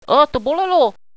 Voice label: ouch, radio bot

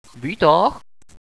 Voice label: elevator, radio bot

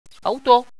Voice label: truck, radio bot

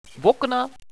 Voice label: windows, radio bot

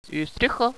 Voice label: roof, radio bot

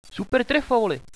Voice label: good shot commander, radio bot

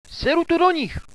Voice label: attacking enemies, radio bot

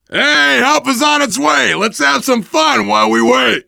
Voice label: radio; cstrike; zombie plague

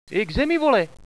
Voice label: get down, hostage reactions

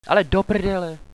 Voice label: oh no, hostage reactions

